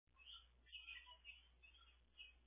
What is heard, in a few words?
Voicemail